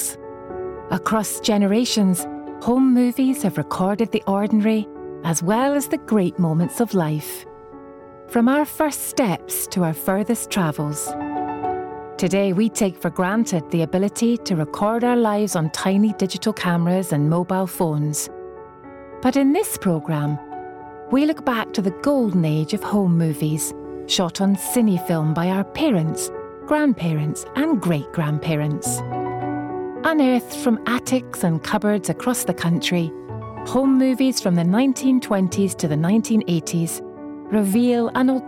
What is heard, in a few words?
Commercial, Scottish voice over